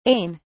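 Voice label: "ain", British English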